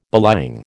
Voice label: "belying", American

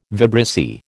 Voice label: "vibrissae", American

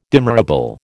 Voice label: "demurrable", American

